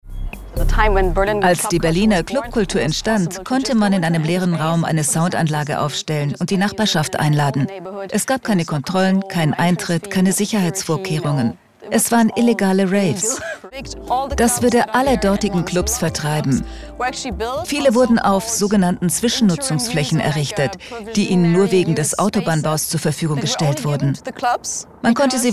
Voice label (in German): Overvoice, Doku, Overlay, German; Berliner Clubs unter Druck; RBB